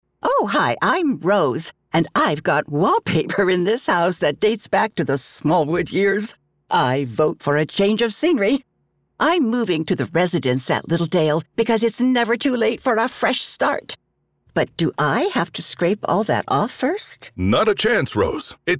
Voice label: RADIO; Littledale; SMALLWOOD